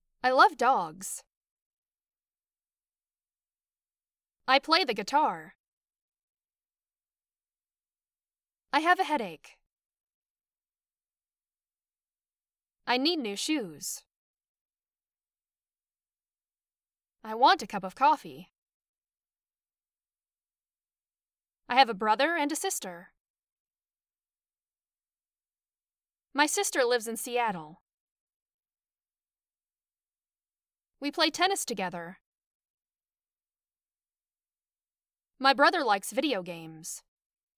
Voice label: Sentences Natural